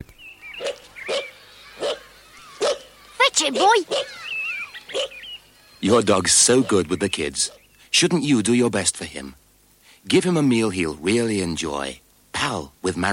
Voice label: Radio Advert; Radio Hallam; PAL